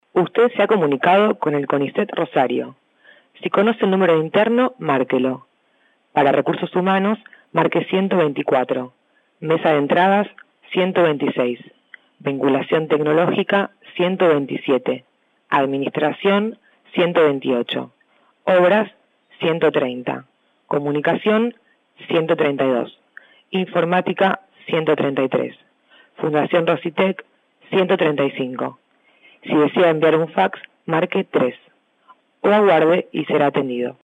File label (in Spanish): mensajes telefonicos